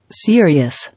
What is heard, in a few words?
"Serious", English